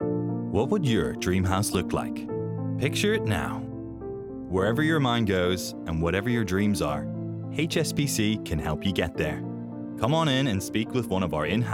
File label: Commercial